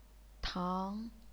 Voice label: "tang2", chinese pinyin